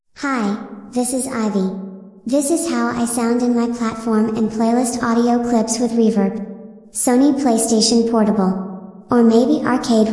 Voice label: with Reverb